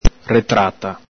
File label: "retrata", Venetian